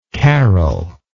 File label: "caroling", English